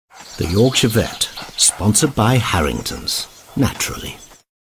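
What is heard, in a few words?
ident, Strong, gritty, grounded and assured; The Yorkshire Vet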